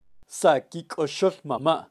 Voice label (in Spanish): "saqikoxol mama", kaqchikel, pronunciacion de animales